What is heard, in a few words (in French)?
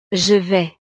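"je vais", French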